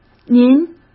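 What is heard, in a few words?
"您", Mandarin Chinese; chinese-flashcards; hsk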